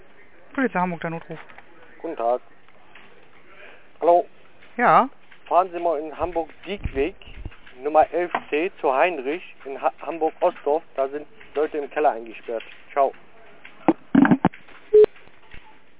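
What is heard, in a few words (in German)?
Notrufsäule Notruf; notrufsaeule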